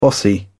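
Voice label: "bossy", British English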